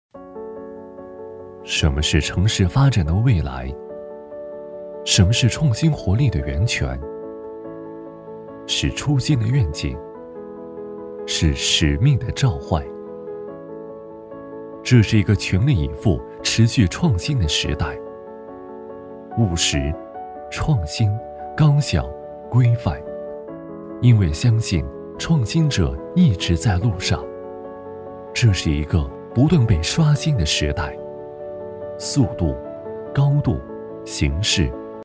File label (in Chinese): 男,品质旁白; 城市未来